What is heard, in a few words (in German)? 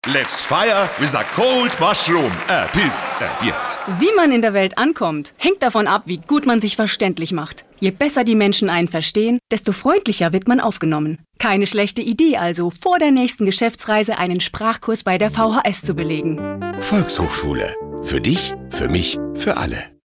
Radiospot; vhs; Sprachverwirrung